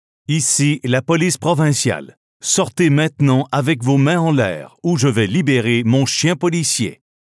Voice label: Provincial Police Canine Release (French Canadian); Pathfinder